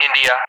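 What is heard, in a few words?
"India", English; Alphabet; DCS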